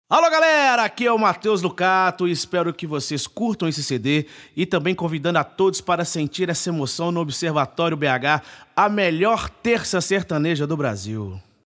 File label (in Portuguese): locucao